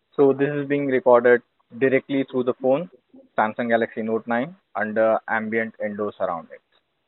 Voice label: Call recording